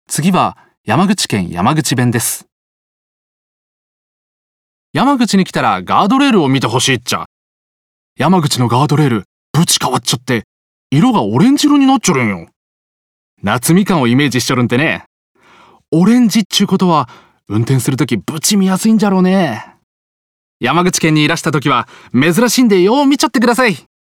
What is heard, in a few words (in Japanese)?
山口弁; 方言